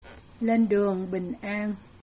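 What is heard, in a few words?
bon voyage, Vietnamese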